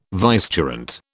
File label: "vicegerent", British